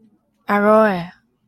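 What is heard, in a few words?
"aloe", Japanese, female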